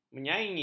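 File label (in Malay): "menyaingi", Malay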